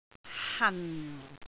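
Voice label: "han4", Cantonese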